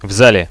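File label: in the hall, Russian, radio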